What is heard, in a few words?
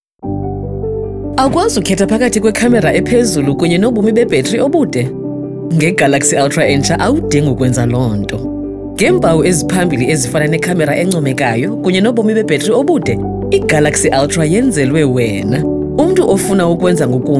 XHOSA